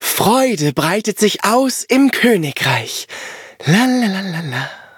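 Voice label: levelup, German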